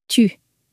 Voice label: "tu", French

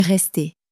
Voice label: "rester", French

